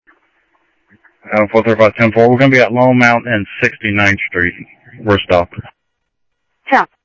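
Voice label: Sheriff East County, scanner audio trunk; ThompsonPeakScot; MCSO - EAST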